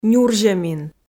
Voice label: "нюрзьӧмин", Komi

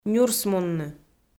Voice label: "нюрсмунны", Komi

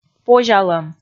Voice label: "позялӧм", Komi

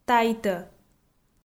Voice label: "тайтӧ", Komi

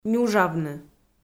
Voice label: "нюжавны", Komi

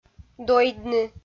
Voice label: "дойдны", Komi

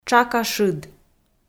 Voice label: "тшака шыд", Komi

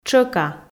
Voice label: "тшӧка", Komi

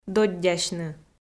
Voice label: "доддясьны", Komi

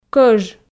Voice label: "кӧж" Komi

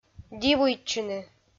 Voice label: "дивуйтчыны", Komi

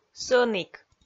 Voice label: "сӧнік", Komi

